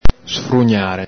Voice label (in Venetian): "sfrugnare", Veneto